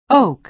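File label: "oak", American English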